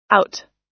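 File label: "out", US English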